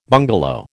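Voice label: "bungalow", American English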